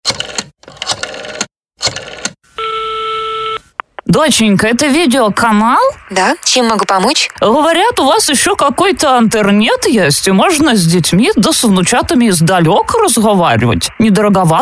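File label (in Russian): иностранка и бабуля, Дикторы пародисты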